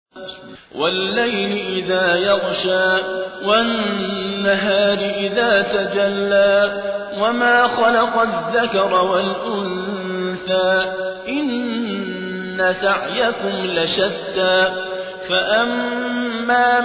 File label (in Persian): ترتیل; صابر عبدالحکم; سوره لیل